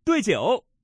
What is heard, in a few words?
pair 9, male